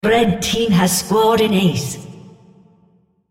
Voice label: On Ace red female spectator

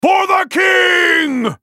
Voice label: ultimate effort; LubuJarvanIV

